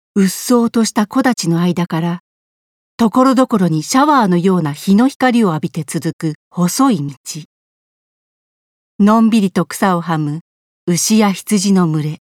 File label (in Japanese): 名作の聖地解説ナレ